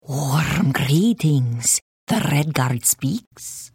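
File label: Hello, Khajiit female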